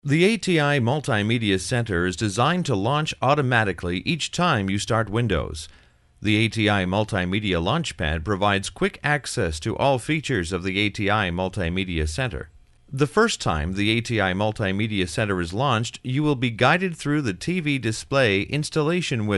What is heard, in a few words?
Technical Read, audio corporate